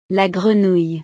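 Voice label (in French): "la grenouille", French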